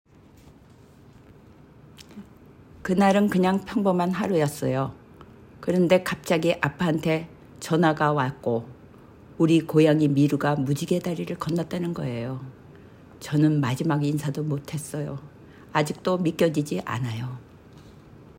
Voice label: Old Female Sad, korean voice emotion